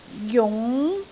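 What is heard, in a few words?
"jung2", Cantonese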